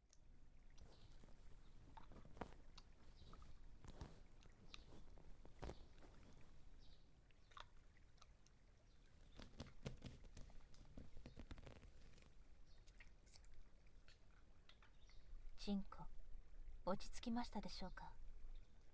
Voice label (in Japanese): 前立腺マッサージ, ASMR